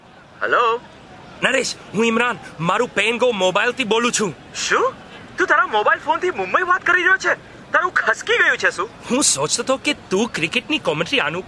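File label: Gujarati General; GoLocalise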